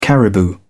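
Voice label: "caribou", British English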